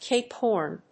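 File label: "Cape Horn", English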